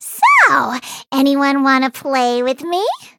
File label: eng menu idle; Bacon Roll